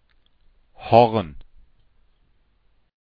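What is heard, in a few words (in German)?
"Horn", German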